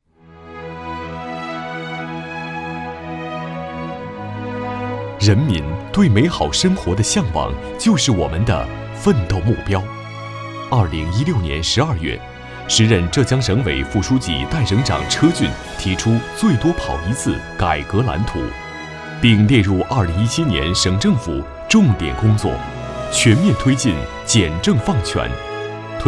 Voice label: 男65 政府专题

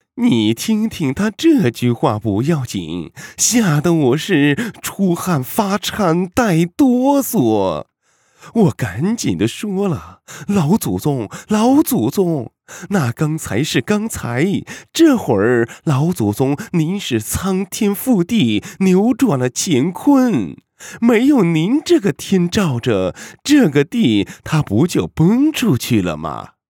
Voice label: 男 角色 模仿太监; 浑厚

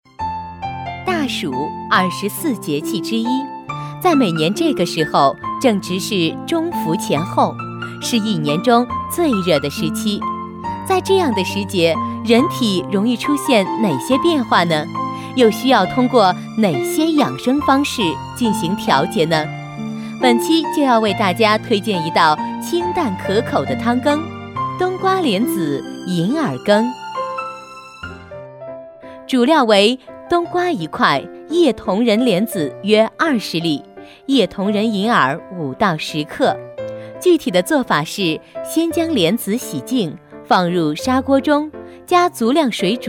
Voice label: 女 美食养生节目

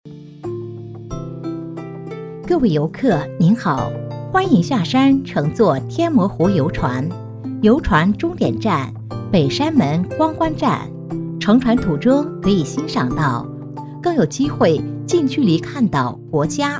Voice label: 女 欢迎乘坐游船

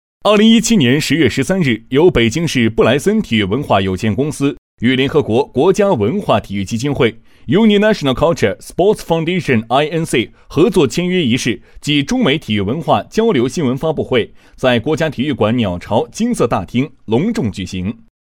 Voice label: 男62 新闻（新闻播报）

